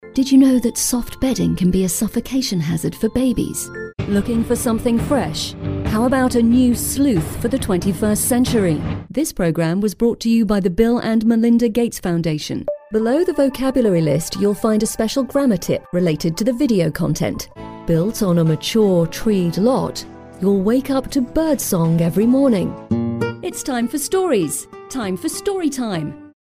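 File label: english female; lektoring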